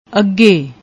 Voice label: "agge", Punjabi; ਅੱਗੇ